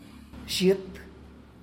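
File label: "cat", Tlacolula Zapotec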